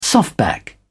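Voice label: "softback", British English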